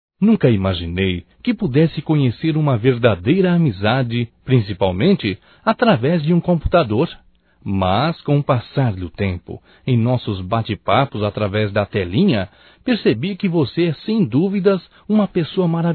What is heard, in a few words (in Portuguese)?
Aniversário Amigo Virtual Masculino; Aniversarios; Telemensagens 2017